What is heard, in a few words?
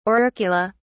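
"auricula", British English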